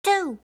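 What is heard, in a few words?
"two", English; eng suuji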